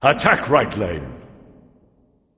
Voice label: vox attack; smite; ra; voicepack